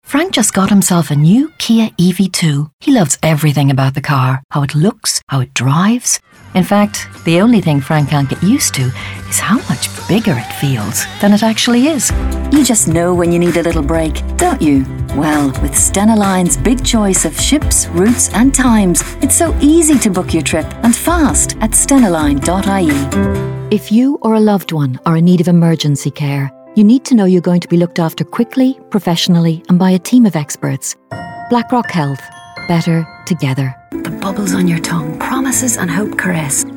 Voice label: commercial showreel, voiceover